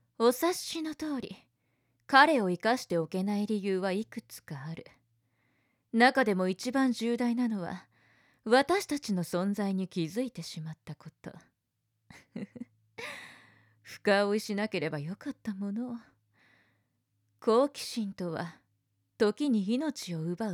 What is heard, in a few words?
woman